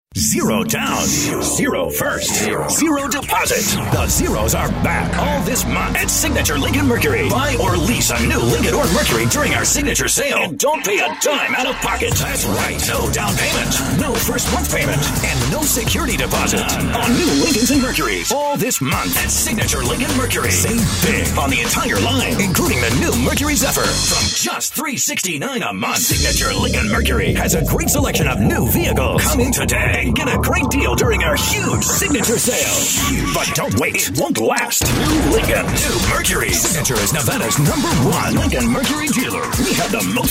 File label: Hard Sell